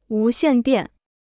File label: "无线电", Chinese